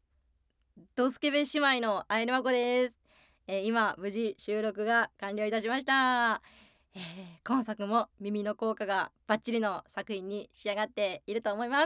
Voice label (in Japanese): フリートーク